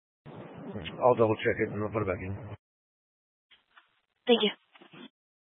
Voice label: Sheriff Dispatch, scanner; Mesa County Sheriff Dispatch